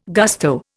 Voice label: "gusto", Indian

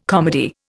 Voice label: "comedy", Indian

